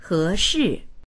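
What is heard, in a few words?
"he2shi4", Mandarin Chinese; 合适; ぴったりしている、適当である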